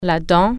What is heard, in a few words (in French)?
"teeth", French